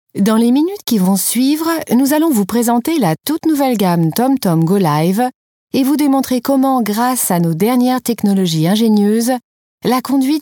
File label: fr elearning